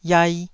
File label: "iai2", Taiwanese syllable